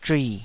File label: "zhui3", taiwanese